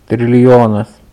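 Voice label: "trilijonas", Lithuanian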